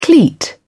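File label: "cleat", English, uk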